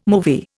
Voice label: "movie", Indian

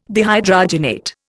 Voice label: "dehydrogenate", Indian

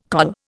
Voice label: "col", Indian

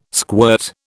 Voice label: "squirt", British

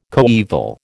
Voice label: "coeval", American

